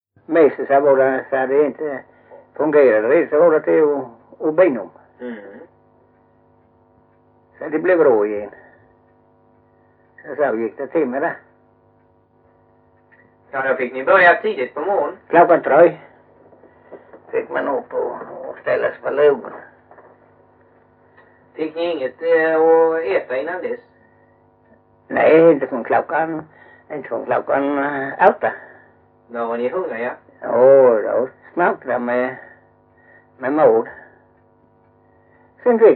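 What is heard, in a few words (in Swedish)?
Mjällby Blekinge, dialekter svenska sagor; Folkminnen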